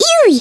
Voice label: "ouch", Hungarian; Worms Armageddon

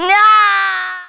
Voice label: "nooo", Thespian; Worms Armageddon

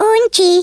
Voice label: BORING, Speech Hungarian; Worms Armageddon

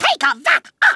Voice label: "dragon punch", Kamikaze; Worms Armageddon

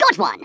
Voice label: "fatality", Stiff Upper Lip

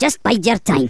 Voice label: "JUST YOU WAIT", Angry Scots; Worms Armageddon

